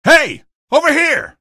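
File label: citizen hey over here, male; vo; npc; source engine; episodic; episode 1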